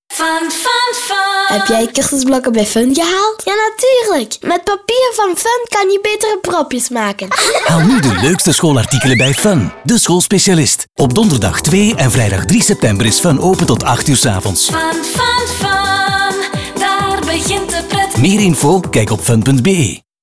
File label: teenage voice; Fun